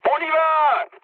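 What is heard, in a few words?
French enemy detected mood low; War Thunder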